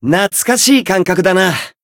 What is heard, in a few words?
growth, jp